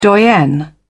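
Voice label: "doyen", British English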